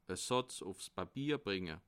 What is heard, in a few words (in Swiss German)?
"satz", alsace